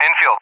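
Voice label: "Enfield", English, USA; CallSign; DCS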